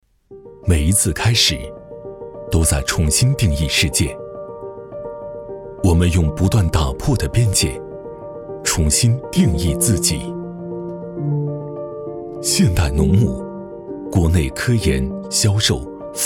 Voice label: 男 宣传片 现代 企业

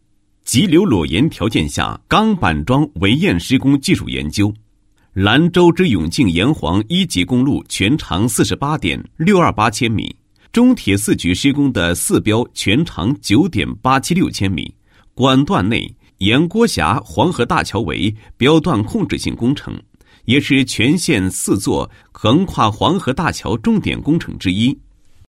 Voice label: 男 解说词; 急流裸岩条件下钢板桩围堰施工技术研究

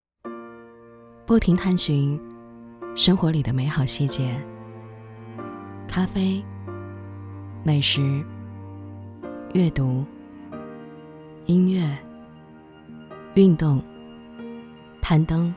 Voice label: 女 旁白宣传; 生活里的美好细节; 不停探寻

